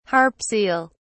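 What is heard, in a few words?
"harp seal", British English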